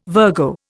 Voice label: "Virgo", Indian

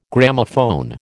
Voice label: "Gramophone", American

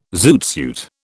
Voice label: "zoot suit", British

